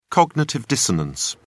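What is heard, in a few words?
"cognitive dissonance", British English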